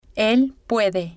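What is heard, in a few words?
"el puede", Spanish, female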